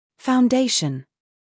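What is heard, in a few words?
"scandal", American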